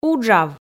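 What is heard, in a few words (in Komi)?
"уджав", Komi, female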